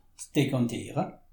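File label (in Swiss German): "dekantiere", Alsatian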